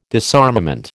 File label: "disarmament", American